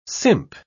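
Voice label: "simp", British English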